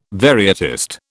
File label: "varietist", British